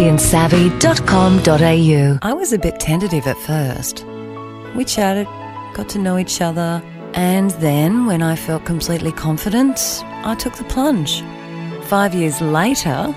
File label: Australian